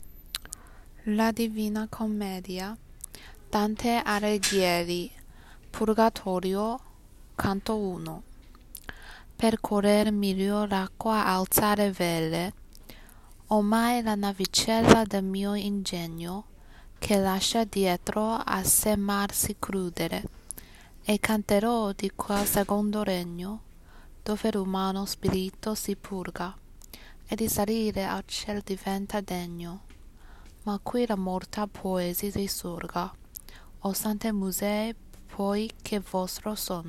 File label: Reading of Selected Passage